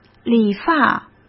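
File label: "理发", Mandarin Chinese; hsk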